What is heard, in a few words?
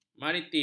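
"maritim", Malay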